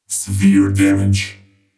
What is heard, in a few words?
Race Robot Severe Damage